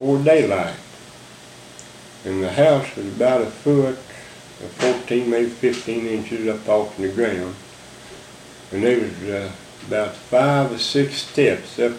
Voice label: Conversation with 60 year old white male West Virginia, american english dialect